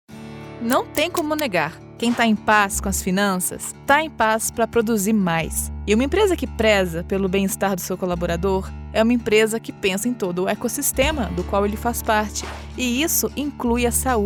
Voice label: elearning education young dynamic conversational carioca female brazilian; onze